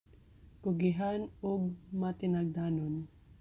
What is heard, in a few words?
Cebuano; hardworking and caring - kugihan ug matinagdanon